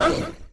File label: ice queen pain